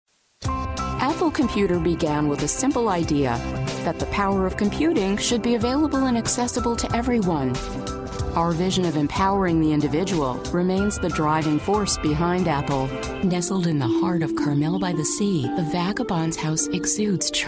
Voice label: Narration Female; Narration, Corporate, Documentary, eLearning